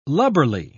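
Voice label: "lubberly", British English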